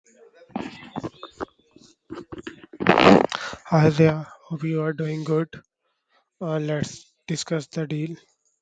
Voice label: voice message; whatsapp